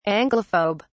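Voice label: "anglophobe", British English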